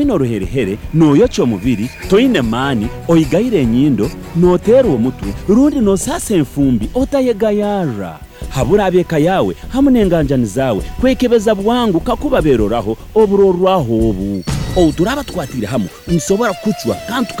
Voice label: MPOX Narrator; radio spots; The MPOX Campaign Safe Circles